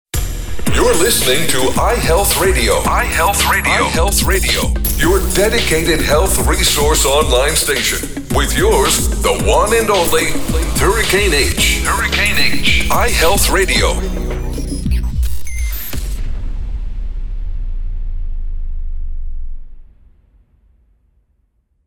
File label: short promo; ihealth radio